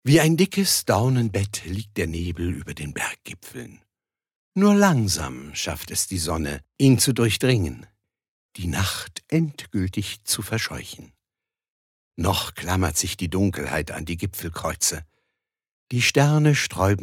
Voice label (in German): Schauspiel Variabel